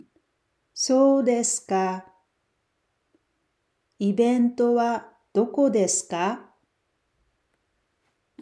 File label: japanese Dialogue